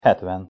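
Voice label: "hetven", Hungarian pronunciation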